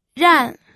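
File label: "ran", Mandarin Chinese, fourth tone; pinyin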